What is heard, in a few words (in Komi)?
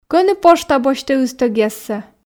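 кӧні пошта босьтӧ ыстӧгъяссӧ, Komi, female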